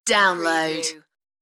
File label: "Download", UK female; beanstalk audio